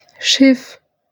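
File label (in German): "Schiff", German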